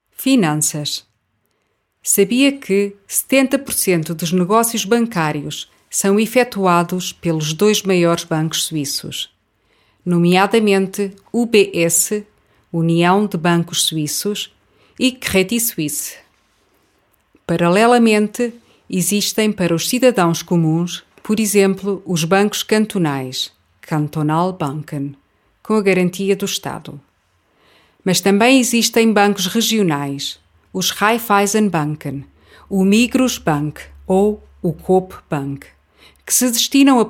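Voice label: Portuguese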